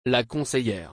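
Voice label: "La conseillère", French; مشاور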